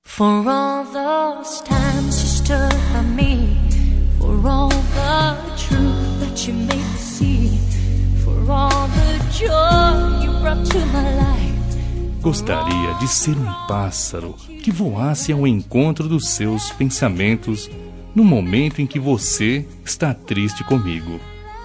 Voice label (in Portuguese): ROM DESCULPA MASC; Desculpas; Telemensagens 2017